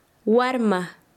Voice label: Chanka, quechua; nino